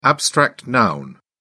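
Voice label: "abstract noun", British English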